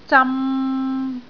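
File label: "zam3", Cantonese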